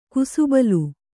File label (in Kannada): "ಕುಸುಬಲು", Kannada